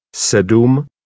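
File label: "sedum", Czech, male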